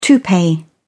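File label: "toupee", British English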